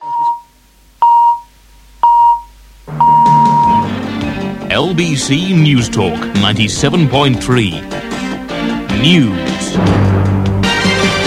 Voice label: news; lbc